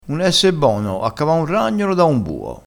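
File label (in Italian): "ragnolo", vocabolario dialettale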